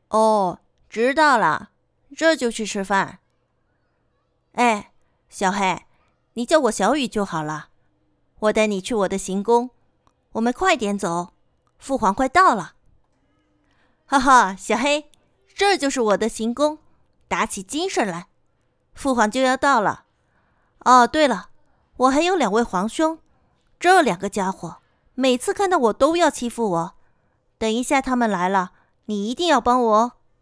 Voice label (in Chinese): 二次元场景项目正太音